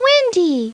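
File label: "windy", English; Treasure Galaxy; Learning Company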